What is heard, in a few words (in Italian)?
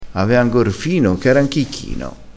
"chicchino", crespinese